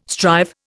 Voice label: "strive", Indian